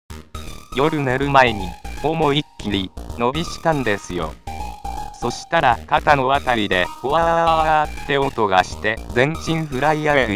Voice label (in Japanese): フリートーク; FUJITSU; 繋ぎフリートーク